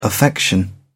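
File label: "affection", English, uk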